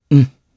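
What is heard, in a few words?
Vox Aff Japanese; Theo; kings raid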